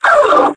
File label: pain, metropolice